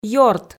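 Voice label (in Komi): "ёрт", Komi, female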